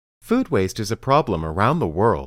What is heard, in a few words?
Dictation